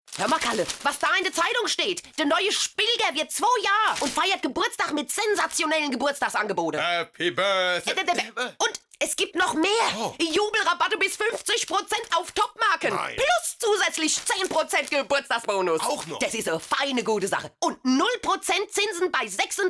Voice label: dialekt hessisch

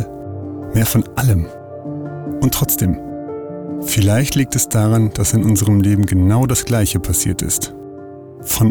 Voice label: Sprachprobe Werbung Fernsehen; LOFT VOICES

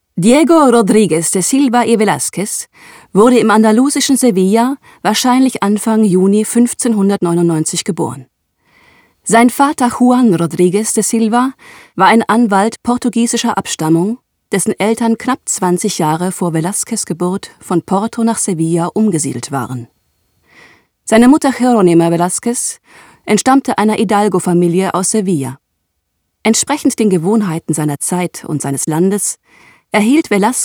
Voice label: Erzähler

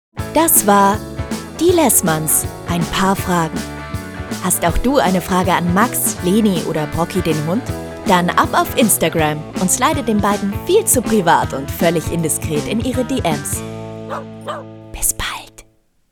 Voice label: Podcast

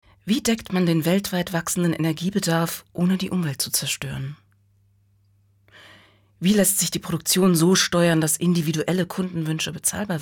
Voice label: werb soft; siemens

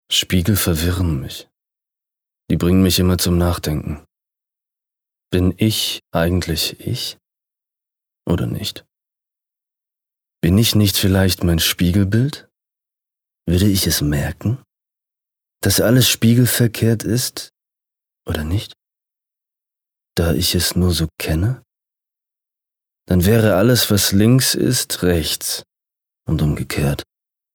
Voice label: Innerer Monolog; LOFT VOICES

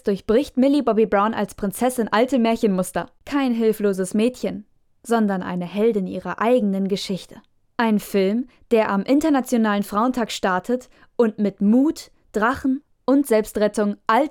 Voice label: Comment (Kommentar), Lip-Sync (Synchron), German; Damsel; TikTok

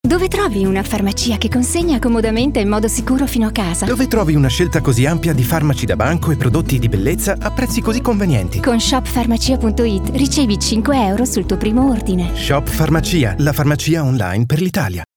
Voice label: Werbung Shop Farmacia; LOFT VOICES

